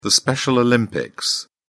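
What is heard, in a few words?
"special olympics", British English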